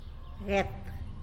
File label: "guet", tlacolula; tortilla